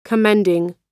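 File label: "commending", American English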